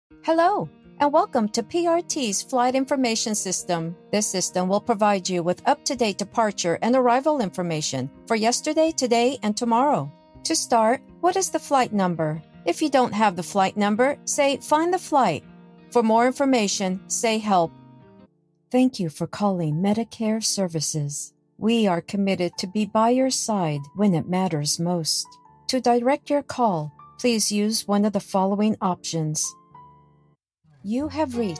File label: ivr